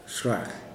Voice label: "what", Kuman